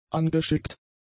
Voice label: "angeschickt", German; adjectives

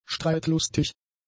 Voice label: "streitlustig", German; adjectives

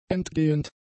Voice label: "entgehend", German; adjectives

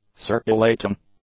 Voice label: "circulating", English; adjectives

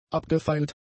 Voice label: "abgefeilt", German; adjectives

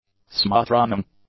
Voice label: "smoth-running", english; adjectives

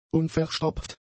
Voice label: "unverstopft", German; adjectives

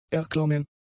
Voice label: "erklommen", German; adjectives

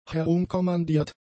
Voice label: "herumkommandiert", German; adjectives

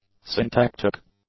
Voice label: "syntactic", English; adjectives

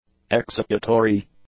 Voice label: "executory", english; adjectives

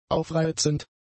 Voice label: "aufreizend", German; adjectives

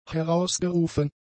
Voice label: "herausgerufen", German; adjectives

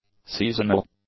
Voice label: "seasonal", English; adjectives

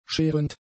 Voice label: "scherend", German; adjectives

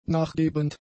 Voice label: "nachgebend", German; adjectives

